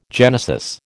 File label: "genesis", American